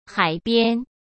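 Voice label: "海边", Mandarin Chinese; chinese flashcards; hsk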